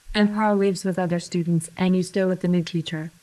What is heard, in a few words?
Voice Acting, es